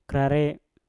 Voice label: "krare", Mpur; kering

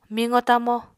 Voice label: "miqo-tamo", Apatani

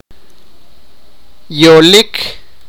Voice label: sipakapense; tell story

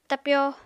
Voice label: "tapyo", apatani

